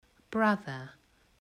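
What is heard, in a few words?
"brother", English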